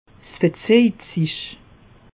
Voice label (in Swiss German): "verzeije", alsace; meteo